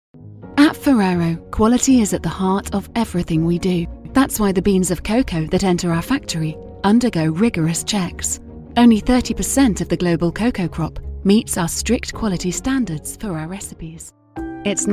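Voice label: British English Corporate; GoLocalise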